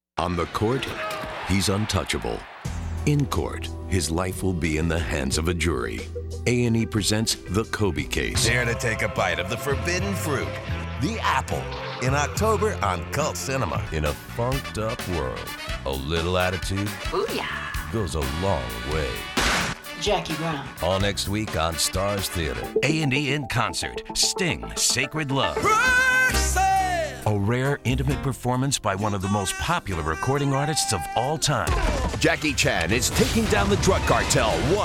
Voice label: promo, men